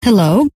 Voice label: "hello", scientist female